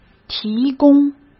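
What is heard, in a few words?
"提供", Mandarin Chinese; hsk; chinese flashcards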